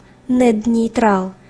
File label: girl